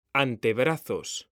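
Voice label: "antebrazos", Spanish, male; mekanta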